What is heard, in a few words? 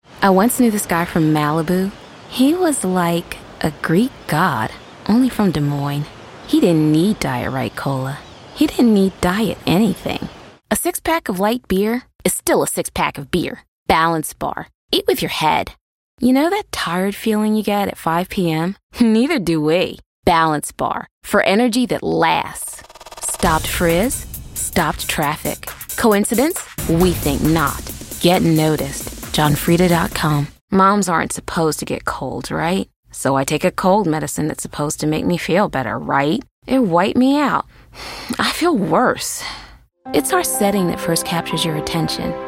Commercial